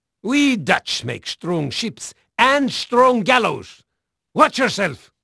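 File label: Dutch male, voice; Pirates Of The Caribbean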